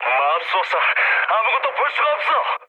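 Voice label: Korean searchlight mood med, speech; War Thunder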